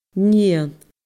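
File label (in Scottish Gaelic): "nighean", Scottish Gaelic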